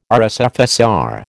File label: "RSFSR", American